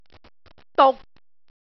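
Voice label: "duk6", Cantonese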